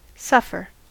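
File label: "suffer", US English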